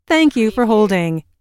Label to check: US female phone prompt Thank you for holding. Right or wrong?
Right